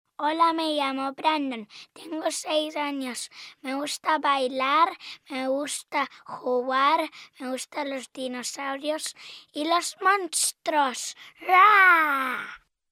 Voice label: locutor nino, Spanish voiceover, Spanish child voice 6 years old